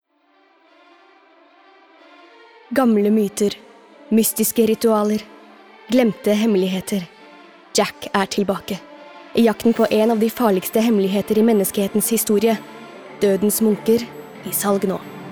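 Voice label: Norwegian movie trailer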